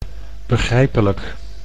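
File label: "begijpelijk", Dutch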